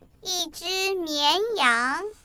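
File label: "sheep", Mandarin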